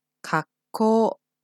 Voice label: "kakkou", Japanese